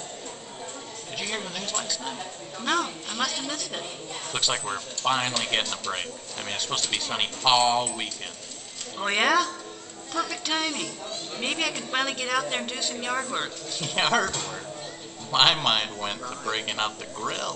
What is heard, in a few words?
speech in noise